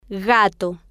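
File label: "gato", Spanish, female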